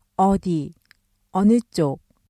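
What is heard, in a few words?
"어디, 어느 쪽", Korean; どこ,どちら,どっち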